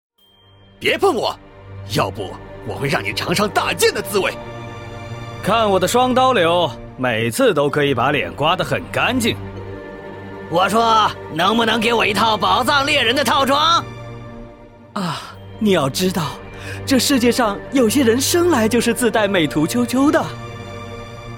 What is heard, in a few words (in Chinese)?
魔灵男